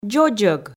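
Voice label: "дзодзӧг", Komi, female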